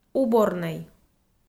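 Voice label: "уборнӧй", Komi, female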